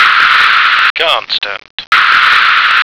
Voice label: "constant", American

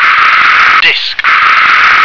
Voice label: "disc", English

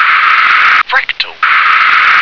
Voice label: "fractal", English

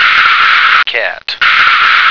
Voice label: "cat", American

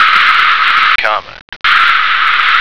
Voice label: "comet", American

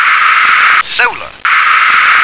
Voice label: "solar", English

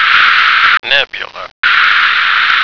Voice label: "nebula", American

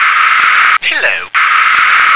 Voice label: "pillow", English

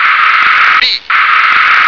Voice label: "bee", English

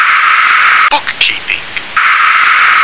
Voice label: "bookkeeping", English

